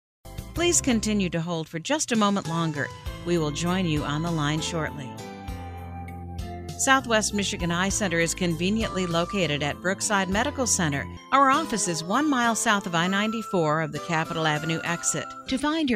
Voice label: English American IVR